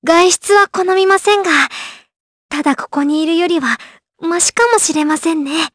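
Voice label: voice dia, voices heroes, Japanese; Leo; kings raid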